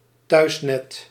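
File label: "thuisnet", Dutch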